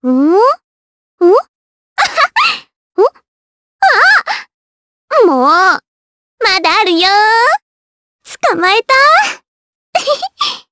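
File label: vox get Japanese; Annette; kingsraid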